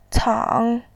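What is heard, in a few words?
"cang", Mandarin Chinese, third tone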